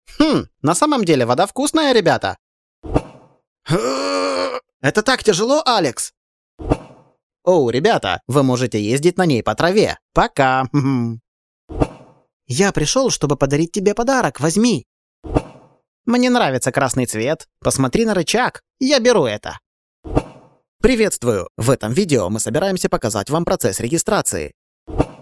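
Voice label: male russian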